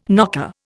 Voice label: "knocker", Indian

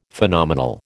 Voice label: "Phenomenal", American

